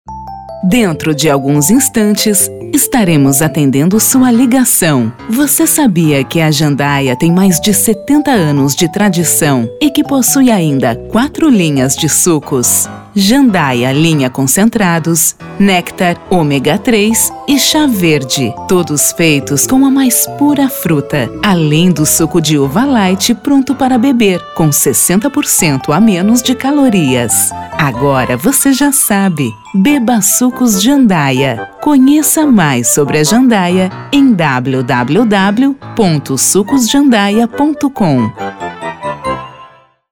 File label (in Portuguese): ura locutora; gravacao de ura